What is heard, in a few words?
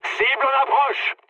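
near waypoint, mood medium, French; War Thunder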